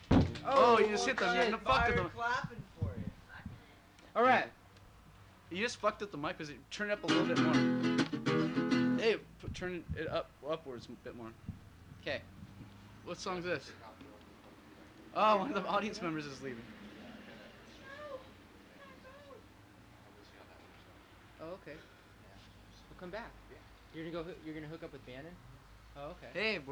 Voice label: Banter; Background Actors